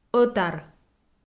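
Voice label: "ӧтар" Komi, female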